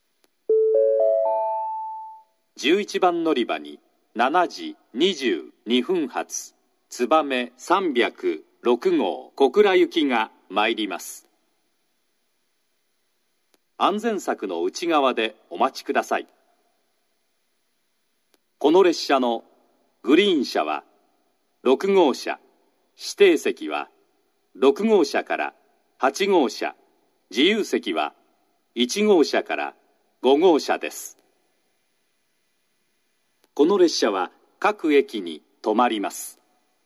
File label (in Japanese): 11番のりば予告放送; 新玉名; つばめ306号 小倉行き